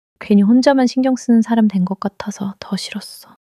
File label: anger